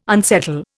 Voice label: "unsettle", Indian